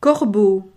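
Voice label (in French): "corbeau", French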